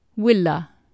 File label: "willa", Anglo Saxon